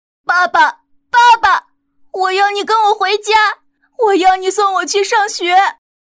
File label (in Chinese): 动画游戏角色男童